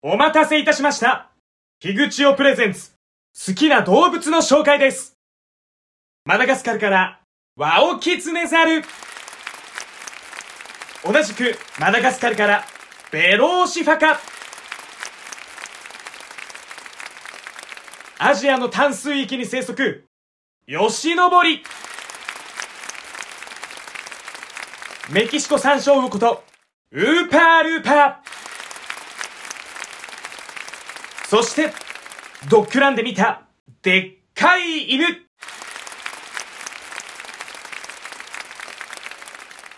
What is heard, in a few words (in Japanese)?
ボイスサンプル 選手紹介